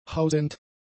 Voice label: "hausend", German; adjectives

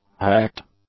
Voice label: "hacked", english; adjectives

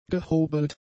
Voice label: "gehobelt", German; adjectives

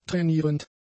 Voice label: "trainierend", German; adjectives

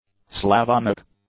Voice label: "Slavonic", english; adjectives

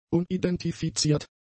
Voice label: "unidentifiziert", German; adjectives

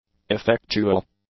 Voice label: "effectual", English; adjectives

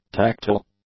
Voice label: "tactile", English; adjectives

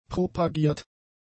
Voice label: "propagiert", German; adjectives

